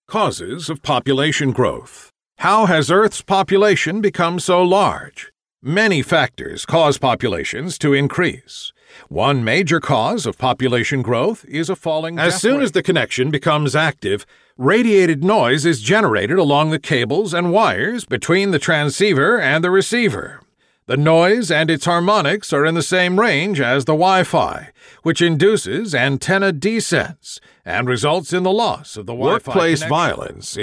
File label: eLearning Sampler